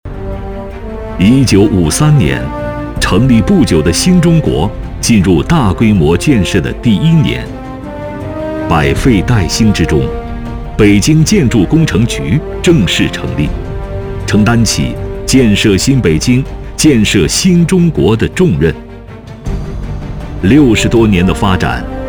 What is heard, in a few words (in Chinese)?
男国 专题 企业 大气; 北京建工